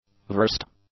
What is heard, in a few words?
"versed", English; adjectives